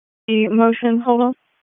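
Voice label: Police Dispatch; wake; p25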